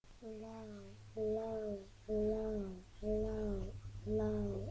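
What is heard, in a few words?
"low", spoken word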